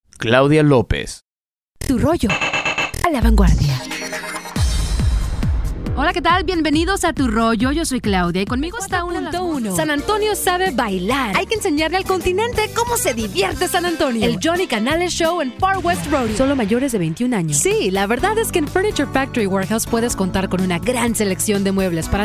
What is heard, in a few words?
Spanish, females